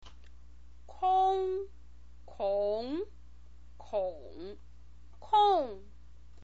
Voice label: "kong", chinese pinyin